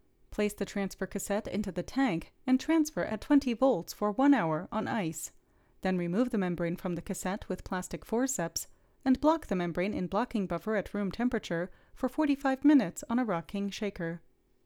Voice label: Voiceover